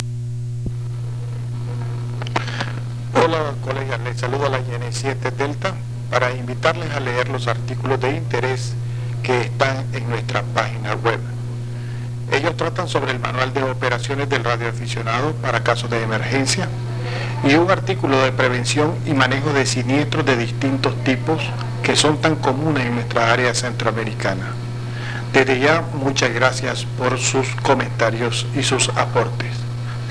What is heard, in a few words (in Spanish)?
mensaje, Informe Meteorologico